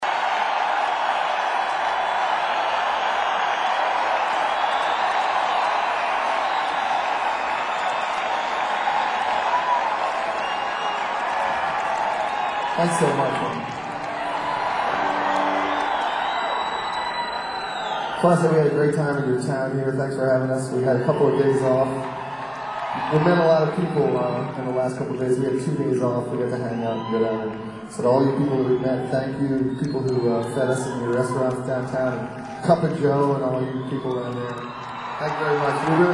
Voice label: banter

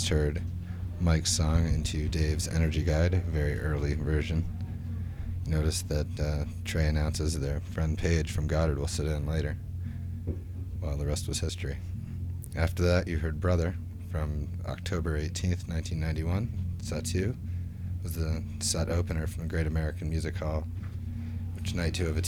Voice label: radio banter; Lemonwheel

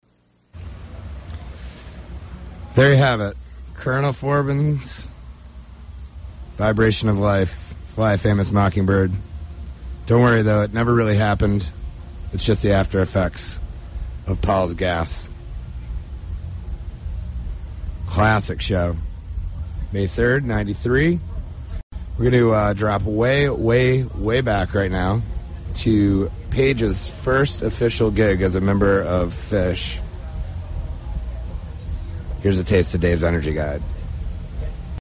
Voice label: radio banter; Bonnaroo

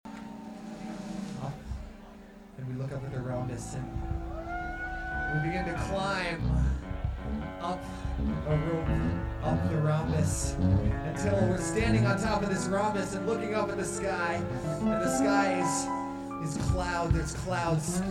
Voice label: Narration

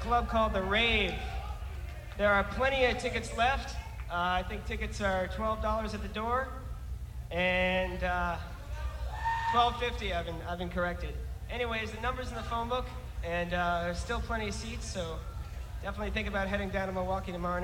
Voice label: announcement

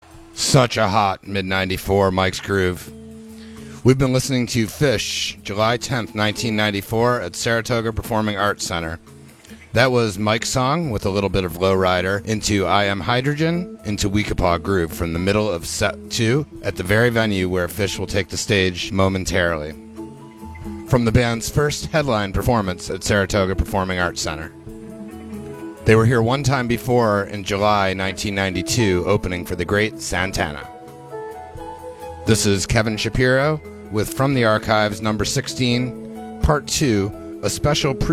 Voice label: radio banter; SPAC

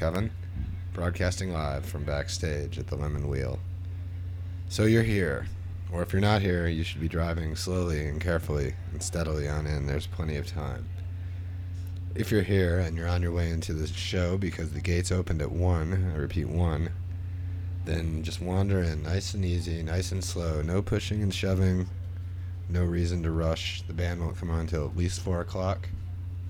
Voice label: radio banter; Lemonwheel

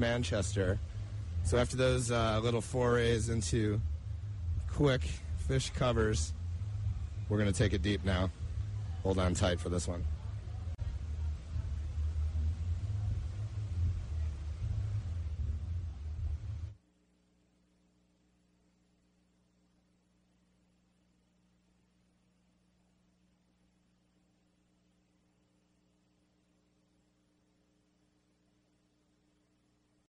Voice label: radio banter; Bonnaroo

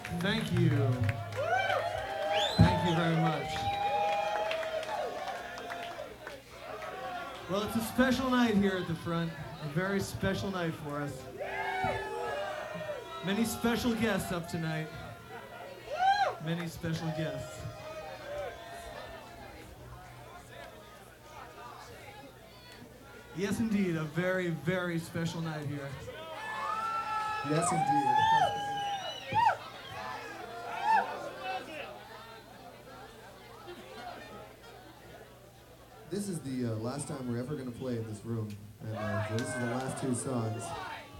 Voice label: banter

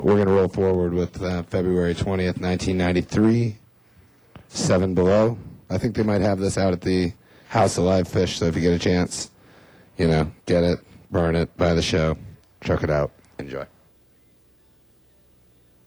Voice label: radio banter

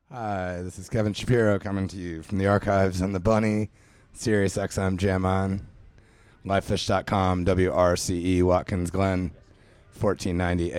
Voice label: radio banter; Super Ball IX

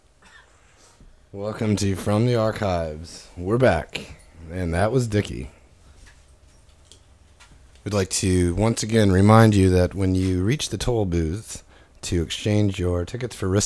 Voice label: radio banter; Big Cypress

